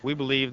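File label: "we believe", American English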